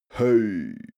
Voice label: male "hey" laid-back